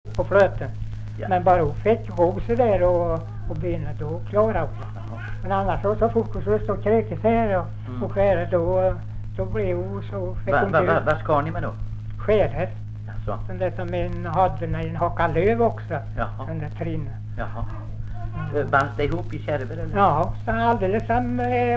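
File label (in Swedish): Visnums-Kil Värmland, dialekter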